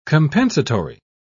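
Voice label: "compensatory", British English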